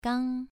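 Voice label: "gang", Mandarin Chinese, second tone, pronunciation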